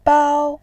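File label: "bao", Mandarin Chinese, first tone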